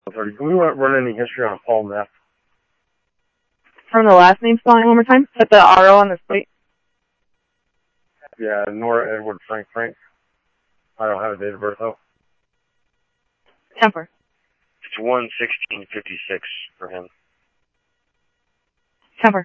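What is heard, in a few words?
police department, scanner audio trunk; SCTSDLE PD - PD1 ScottsdalePolice; H-Scottsdale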